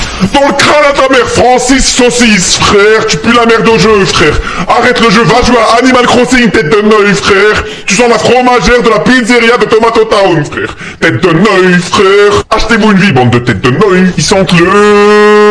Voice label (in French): saucisse grosse voix